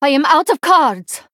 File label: Female Draenei No Cards